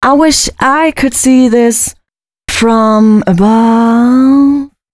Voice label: female spoken hooks, dance ultra voice

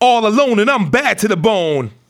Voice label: RAP PHRASE; RAPPER 1-2

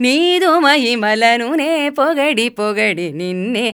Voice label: INDN HINDI; Spectrasonics; Heart of Asia; INDIAN SET

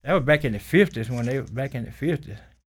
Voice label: SPOKEN, Blues Soul, TALK

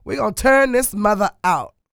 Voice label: DIALOG, R&B Dance, FEM. SPOKEN; Spectrasonics Vocal Planet

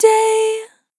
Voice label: "Short Day", vocal samples; Words

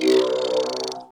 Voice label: VOCODER VOX; Masterbits - Tekkno Trax

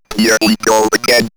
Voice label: Robot Here We Go Again, robot vox; X-Static Goldmine

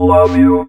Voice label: LOVE YOU, Funky Vocals; USB Soundscan vol.18; AKAI; COMPUTER

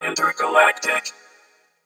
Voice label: Intergalactic G#2, Robotic Vox; 90s Sample CDs; Club Techno

